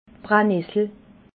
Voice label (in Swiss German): "brennessle", alsace